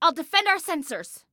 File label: Self Defend Sensors, Female; Female 02